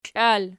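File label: "klal" nominative, Archi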